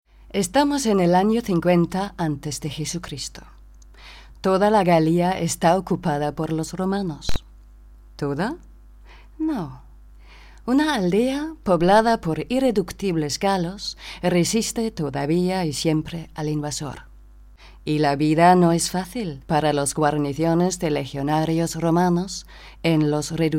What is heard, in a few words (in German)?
Spanisch, Sprecherin